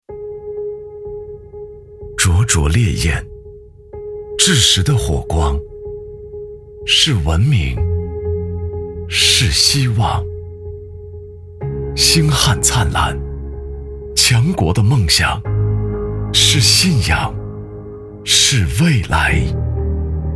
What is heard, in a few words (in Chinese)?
男; 中科航天人才